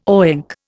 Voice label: "oink", Indian